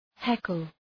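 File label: "heckle", English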